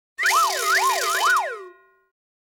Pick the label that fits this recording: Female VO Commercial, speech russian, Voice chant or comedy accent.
comedy accent